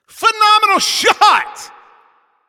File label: Announcer Especially Good Shot